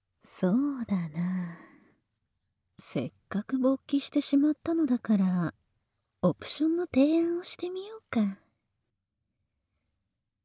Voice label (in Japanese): もっとえっちなASMR